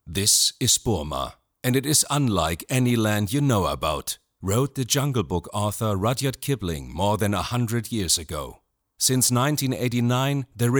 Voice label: Documentation english; This is Burma

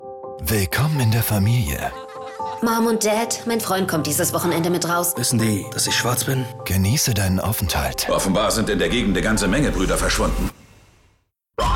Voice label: trailer off spot german; getout; upi

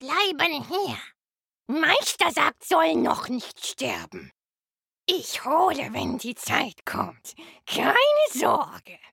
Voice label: RPG Creature Small

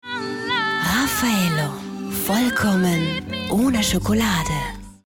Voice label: Slogan; Raffaello

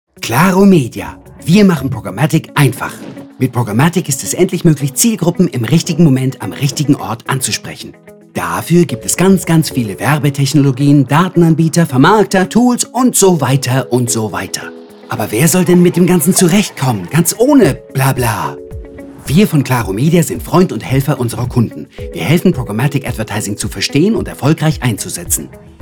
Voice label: Image Film

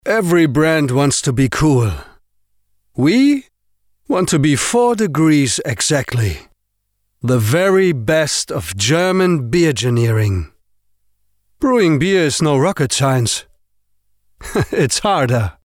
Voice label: Commercial

